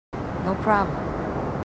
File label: marines female, marine tough